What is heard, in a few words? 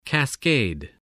"cascade", spelling